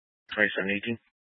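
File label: Sheriff Dispatch, Scanner audio recording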